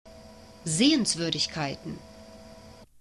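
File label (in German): "sehenswuerdigkeiten", German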